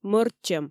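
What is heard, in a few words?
"мӧрччӧм", Komi, female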